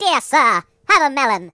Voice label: "Melon", British, voices; Hedgewars